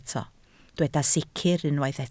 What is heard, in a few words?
"sicr", Welsh